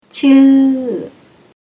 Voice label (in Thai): "Chûee", Thai